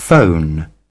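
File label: "phone", British English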